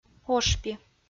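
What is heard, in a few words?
"ошпи", Komi, female; audio dict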